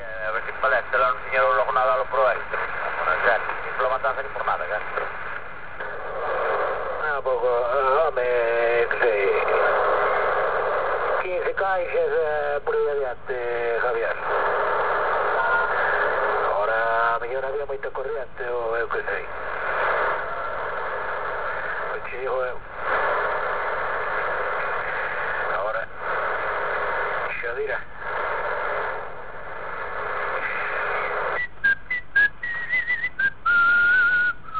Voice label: Galician fishery traffic on 14345 kHz USB